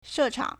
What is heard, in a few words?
"she4chang3", Chinese